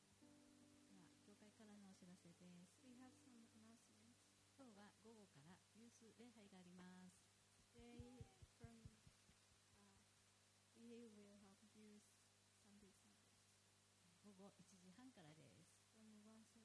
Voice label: announcement; sunday message 2023